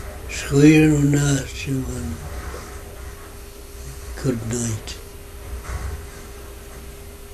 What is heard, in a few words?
yurok language phrase